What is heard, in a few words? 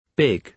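"big", British English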